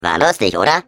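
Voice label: Defective Turret goodbye, German; turret return defect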